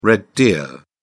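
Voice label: "red deer", British English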